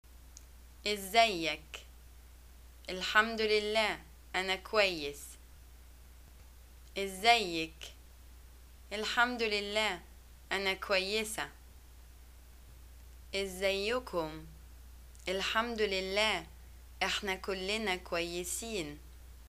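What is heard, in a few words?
Egyptian greeting how are you, arabic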